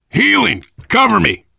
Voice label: cover me, voice, biker; Left 4 Dead 2; left4dead2 dlc3; survivor